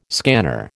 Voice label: "scanner", American

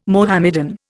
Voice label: "Mohammedan", Indian

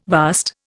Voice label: "vast", Indian

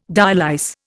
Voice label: "dialyse", Indian

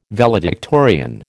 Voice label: "valedictorian", American

